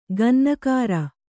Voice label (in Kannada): "ಕನ್ನಾಗರು", Kannada